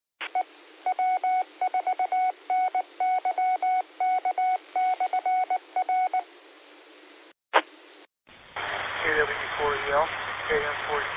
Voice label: qso rec simplex logic